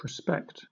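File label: "prospect", British English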